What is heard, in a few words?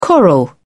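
"coral", British English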